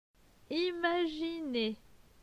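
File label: "Imaginer", French; phonetique; les consonnes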